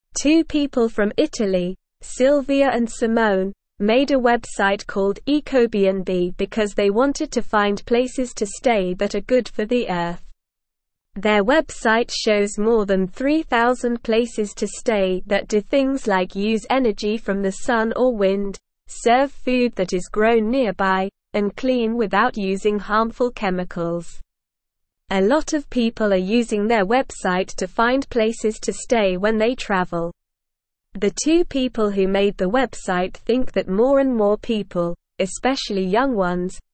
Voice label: English Lower Intermediate SLOW Reading; Helping the Earth Find Eco Friendly Places to Stay; English Newsroom